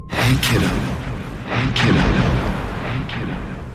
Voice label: Kiddo Male; Waframe